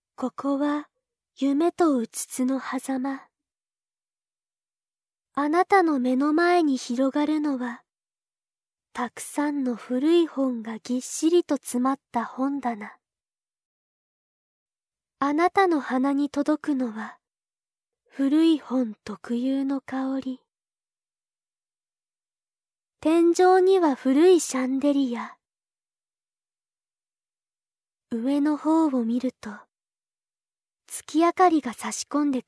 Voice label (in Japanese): おやすみなさい（解除 安眠） BGMなし, ASMR